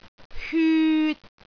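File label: "hyut3", Cantonese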